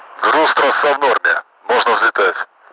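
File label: cargo ropes normal, Russian; Mi-8MT; DCS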